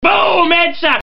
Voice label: "headshot", german; cstrike; quake